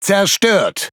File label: De Speech Pilots Torpedo Destroy; World Of Warships